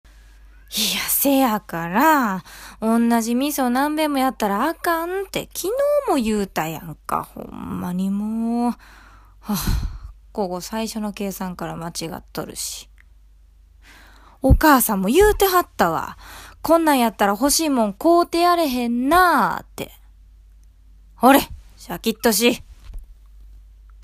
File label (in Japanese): aoi kokoro vs kansaiben